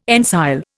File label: "ensile", Indian